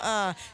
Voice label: "uh" female